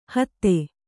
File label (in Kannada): "ಹಾದಿ", Kannada